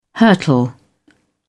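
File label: "hurtle", British English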